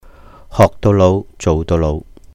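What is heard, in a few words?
"hohk dou lóuh, jouh dou lóuh", Cantonese; 學到老做到老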